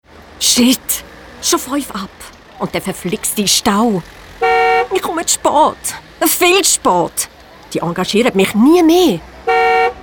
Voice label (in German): Hörspiel